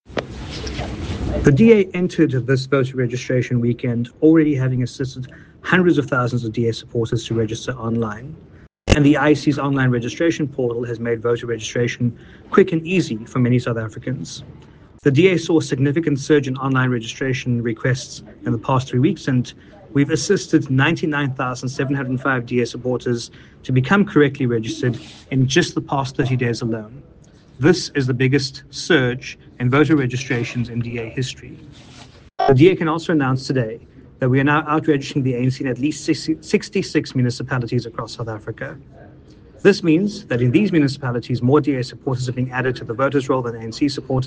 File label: English